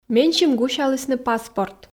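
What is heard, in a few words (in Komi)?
менсьым гусялісны паспорт, Komi, female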